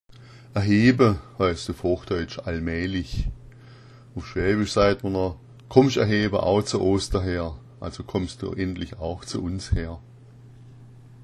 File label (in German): aheba, schwaebisch